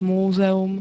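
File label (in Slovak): "múzeum", Slovak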